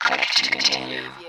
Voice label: Robot voice, click to continue; lynne publishing

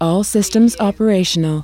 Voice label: US female all systems operational; lynne publishing

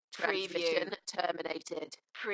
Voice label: UK female, Transmission terminated, Dalekness; beanstalk audio

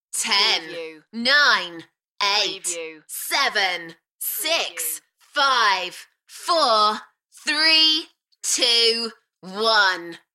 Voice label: UK female Countdown from 10 to 1 Enthusiastic; beanstalk audio

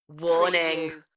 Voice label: UK female, Warning; beanstalk audio

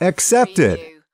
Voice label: "accepted", US male; lynne publishing

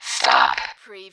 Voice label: Robot voice "stop"; lynne publishing

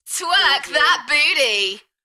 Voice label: UK female, Enthusiastic; Twerk that booty; beanstalk audio

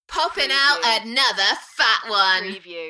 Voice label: UK female Poppin out another fat one Enthusiastic; beanstalk audio

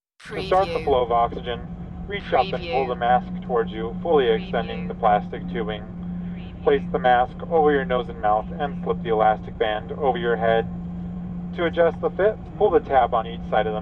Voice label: Stewrd Oxy Mask

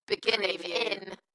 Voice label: UK female, Dalekness; Beginning in

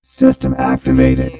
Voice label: Robot voice, "system activated"; lynne publishing

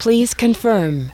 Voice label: US female please-confirm; lynne publishing

